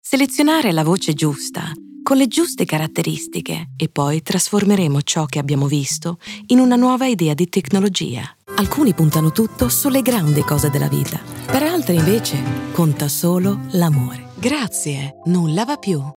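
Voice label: Italian Mix Music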